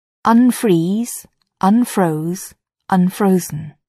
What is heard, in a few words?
"unfreeze", English